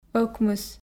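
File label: "ӧкмыс", Komi, female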